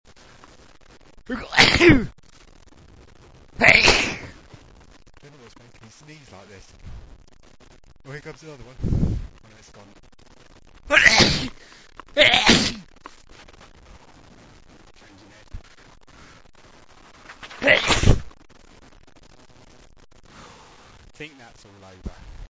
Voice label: talkie, male